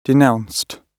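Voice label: "denounced", British English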